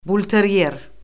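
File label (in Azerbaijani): "bulteryer", Azerbaijani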